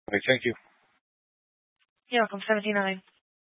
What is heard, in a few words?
Police Department Dispatch, scanner